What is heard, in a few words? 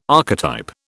"archetype", British